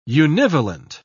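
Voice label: "univalent", English, British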